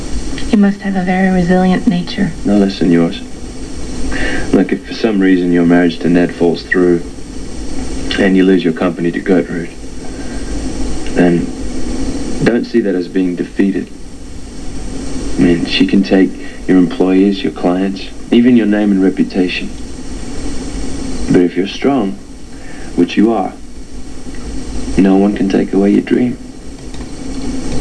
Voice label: Pep Talk